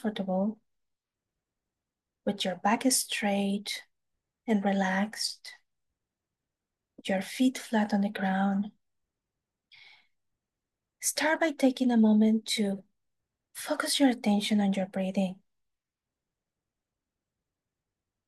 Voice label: mindful breaths, guided meditation